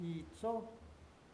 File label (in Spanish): fuego, Huave